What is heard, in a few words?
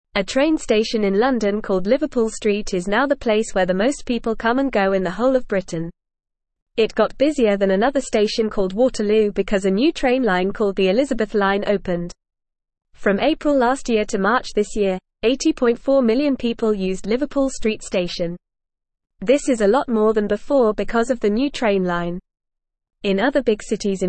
English Lower Intermediate FAST Reading; English Newsroom